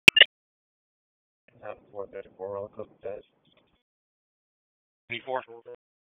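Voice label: Raleigh Police Southeast District Dispatch, p25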